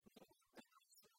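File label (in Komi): нимкодь тӧдмасьны, Komi, female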